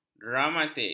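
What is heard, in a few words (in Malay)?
"dramatik", Malay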